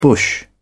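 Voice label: "bush", British English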